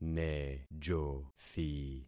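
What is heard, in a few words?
"nejothi", concatenated syllables; Affixing experiment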